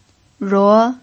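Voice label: "ruo" Mandarin Chinese, second tone, pinyin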